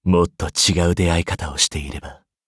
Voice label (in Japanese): loading, ja; cookie run